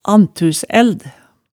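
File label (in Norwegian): "anntusæld", Hemsedal dialect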